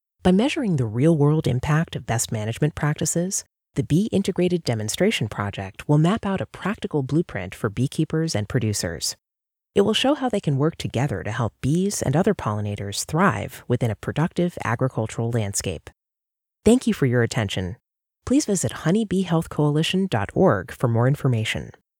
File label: eLearning honeybee health